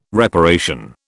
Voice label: "reparation", British